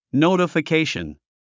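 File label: "notification", English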